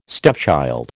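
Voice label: "stepchild", American English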